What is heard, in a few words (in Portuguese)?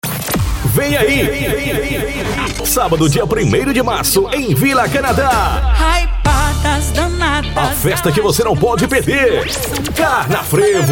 LOCUTOR; chamadas; Carnafrevo Dimpus Clube